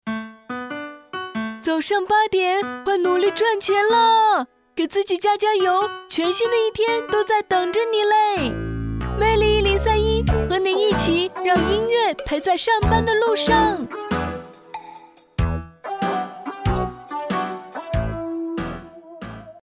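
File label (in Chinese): 卡通配音角色模仿女声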